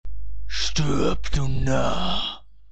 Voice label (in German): Narr; ORK